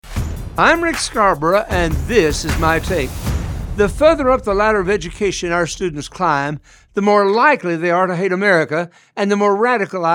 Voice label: Radio Spot